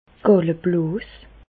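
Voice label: "galleblos", Alsatian, Bas Rhin, vésicule biliaire; medecine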